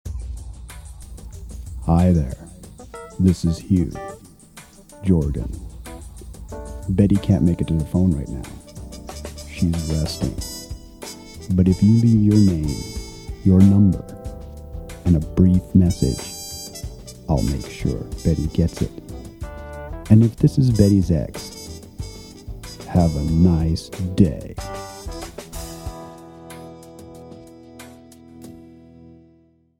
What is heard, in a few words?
Voice-Over; Body Tone Multimedia